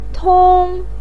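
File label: "tong1", Mandarin Chinese, pinyin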